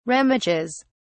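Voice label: "remiges", British English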